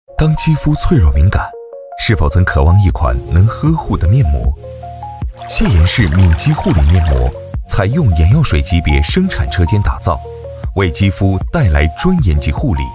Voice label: 男 TVC 美妆

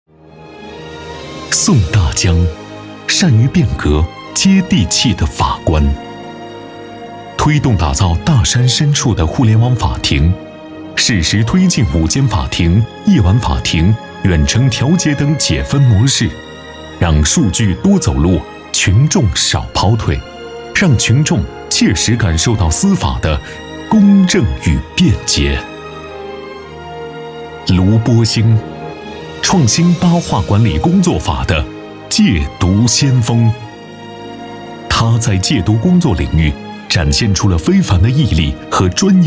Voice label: 男 颁奖; 政府先进人物提名奖

